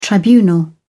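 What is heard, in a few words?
"tribunal", British English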